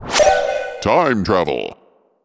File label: Time Travel Voice; Marble Blast Gold